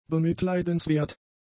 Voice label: "bemitleidenswert", German; adjectives